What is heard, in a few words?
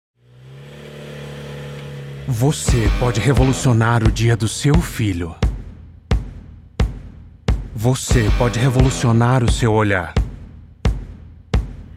portuguese brazilian automotive